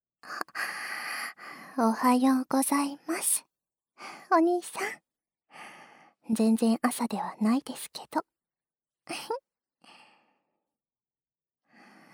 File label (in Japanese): 耳かきサービスでぐっすりお休みください, ASMR